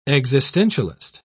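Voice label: "existentialist", British English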